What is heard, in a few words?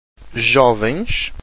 "jovens", Portuguese